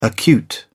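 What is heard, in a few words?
"acute", English, British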